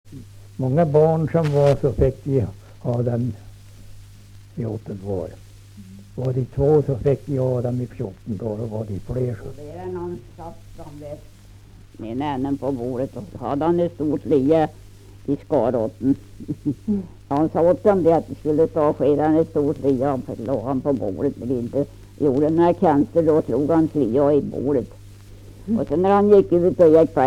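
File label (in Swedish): Lerbäck Närke, dialekter; Folkminnen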